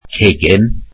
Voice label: welsh kitchen

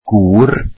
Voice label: welsh husband

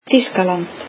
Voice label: icelandic Germany

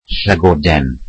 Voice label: welsh mouse

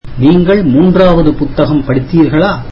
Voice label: tamil did you read the third book

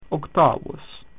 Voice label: "eighth", Latin

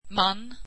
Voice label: swedish man

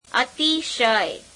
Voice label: gujarati very; અતિશય [ateiśhey]

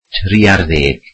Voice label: "thirteen", Welsh

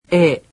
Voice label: "e", japanese; alphabet

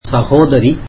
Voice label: tamil sister

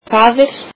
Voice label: icelandic father

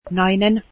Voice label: woman, finnish

